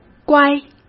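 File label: "乖" Mandarin Chinese; hsk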